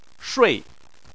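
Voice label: "shui", Chinese, fourth tone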